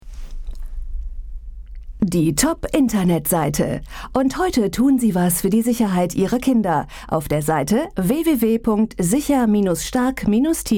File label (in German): Werbespot; Sicher Stark